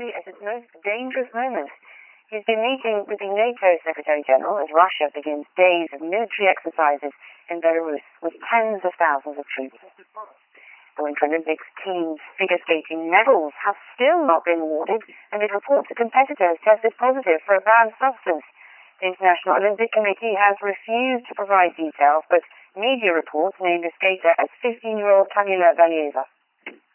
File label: bbc news secure audible